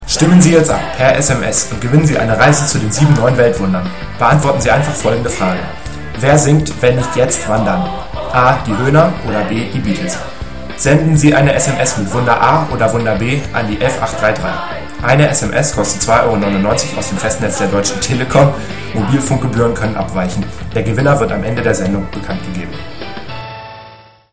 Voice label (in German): Werbung